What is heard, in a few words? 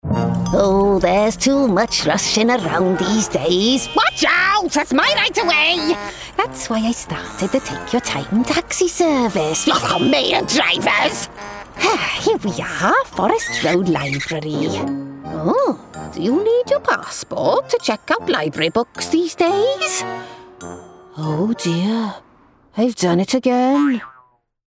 Animation Old Lady Welsh